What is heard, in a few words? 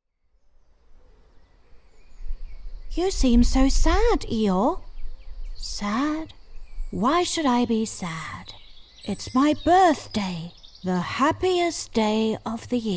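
English audiobook; GoLocalise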